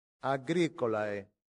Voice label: "agricolae", latin; santillana